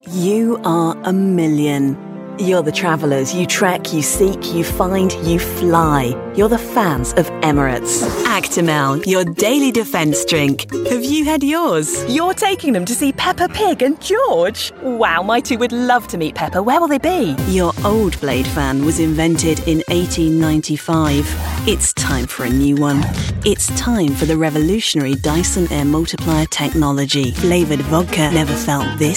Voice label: commercial